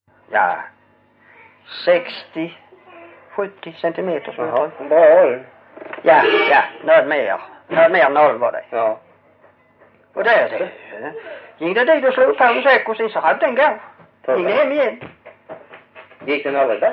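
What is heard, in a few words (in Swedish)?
Hörja Skåne, svenska sagor dialekter; Folkminnen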